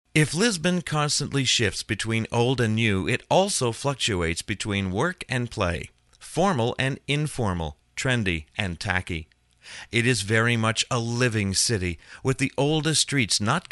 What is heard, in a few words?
Promotional Read